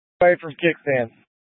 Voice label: Tactical Incidents, scanner